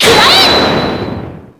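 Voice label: "take that", Japanese; athena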